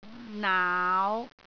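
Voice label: "naau5", Cantonese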